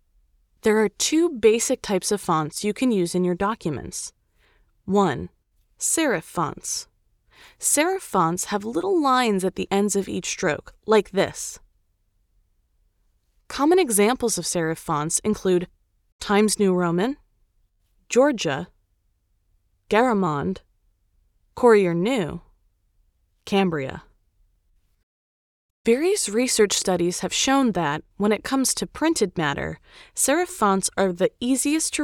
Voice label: Explainer

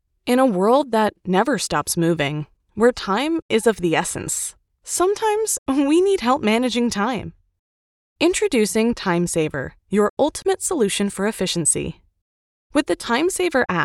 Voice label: App Commercial Warm and Friendly

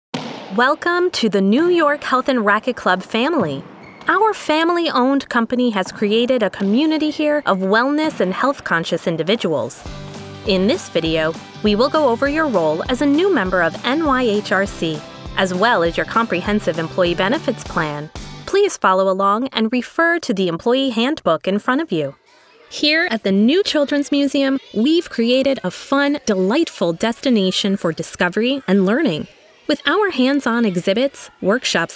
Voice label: Narration

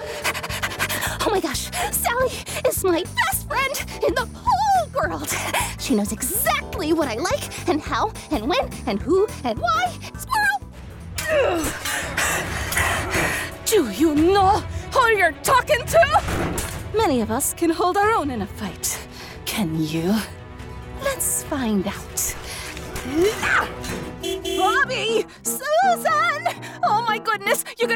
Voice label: character with music

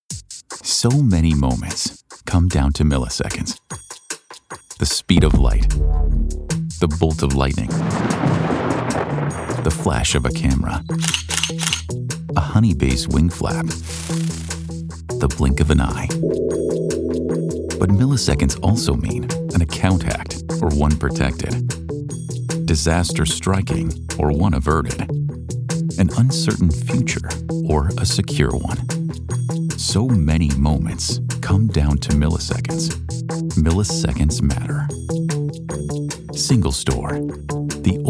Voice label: Brand Spot; SingleStore; Milliseconds

